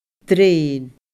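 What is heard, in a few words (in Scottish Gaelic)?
"drein", Scottish Gaelic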